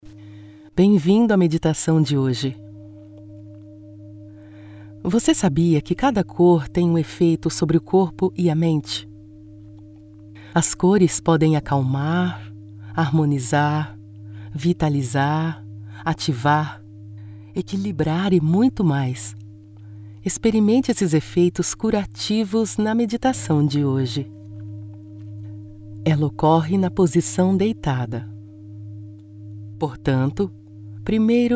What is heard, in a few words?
portuguese brazilian others